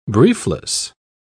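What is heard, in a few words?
"briefless", English, British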